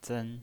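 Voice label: "zen" Mandarin Chinese pinyin voice, first tone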